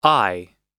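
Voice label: "eye", US English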